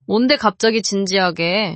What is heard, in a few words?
neutral